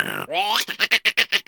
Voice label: crab VO; reef raider